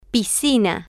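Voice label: "piscina", Spanish, female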